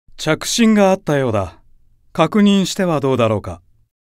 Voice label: call notification voice; Diavolo